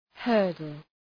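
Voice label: "hurdle", English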